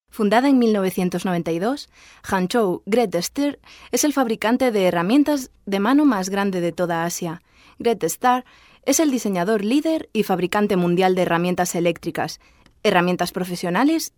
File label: spanish girl